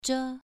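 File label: "zhe2", Chinese pronunciation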